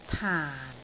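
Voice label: "taan4", Cantonese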